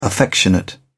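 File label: "affectionate", English, uk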